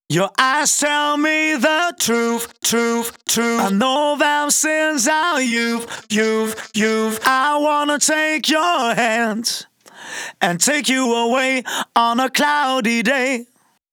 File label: Male Vocals DRY, 140 BPM; Vengeance Samples; Song Kits; One Second